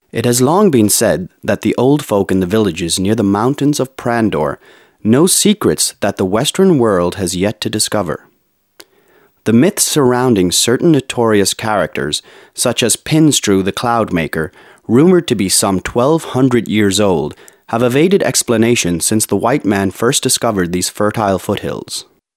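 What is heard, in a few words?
male English documentary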